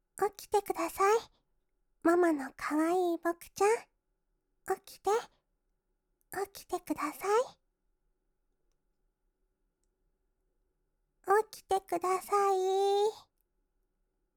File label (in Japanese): 朝 目覚ましボイス; 日常管理編; お金持ち清楚ロリっ娘ママ